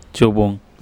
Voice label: "cobong", Andamanese; bush